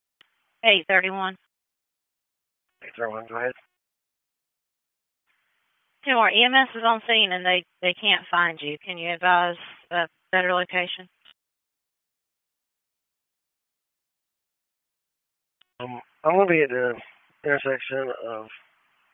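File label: Sheriff's Office Dispatch 1, p25; wake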